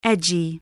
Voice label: "edgy", spelling